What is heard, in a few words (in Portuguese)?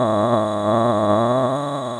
Moderado, Ancoras Sintetizadas